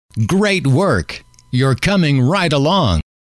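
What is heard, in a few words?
great Work You Re Coming Right Along, male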